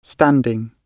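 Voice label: "standing", British English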